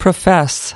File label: "profess", us